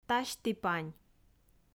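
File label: "тасьті-пань", Komi, female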